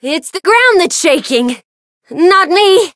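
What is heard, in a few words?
encounter fear; suzette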